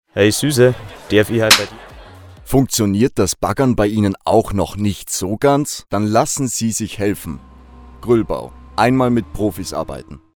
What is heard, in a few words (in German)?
Werbung Reminder; GruellBau